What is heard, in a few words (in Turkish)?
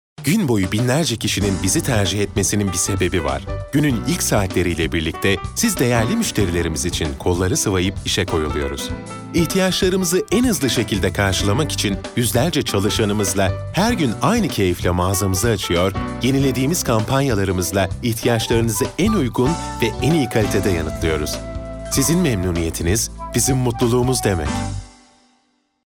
sicak samimi, seslendirme